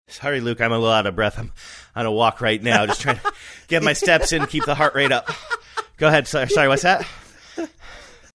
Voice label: saying sorry im little out of breath as if walking out of breath